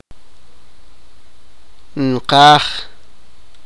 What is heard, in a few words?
sipakapense; near